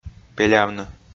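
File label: "пелявны", Komi, male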